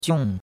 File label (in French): "jiong4", chinois; Cours de Chinois